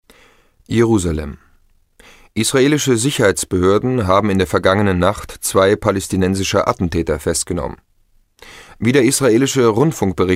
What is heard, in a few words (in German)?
Nachrichten Text